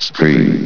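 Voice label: "scream", English; valve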